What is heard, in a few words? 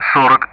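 Speech Sound Russian; DCS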